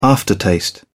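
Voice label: "aftertaste", British English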